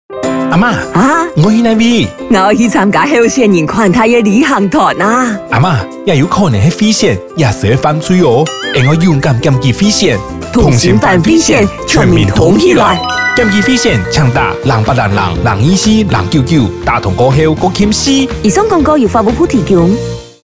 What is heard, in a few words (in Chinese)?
法務部反賄選廣播 客語